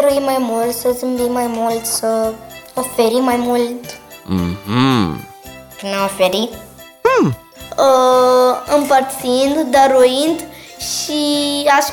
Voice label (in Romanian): Vocea Copiilor; Cum putem fi mai buni